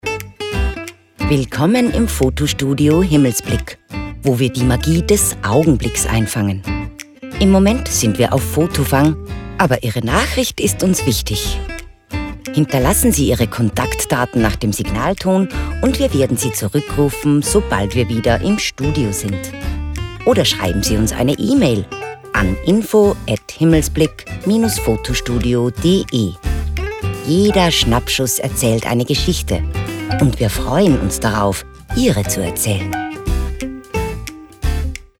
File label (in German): Telefon